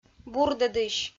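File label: "бурдӧдысь", Komi, female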